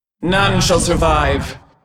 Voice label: Hero female demon hunter morphed yes attack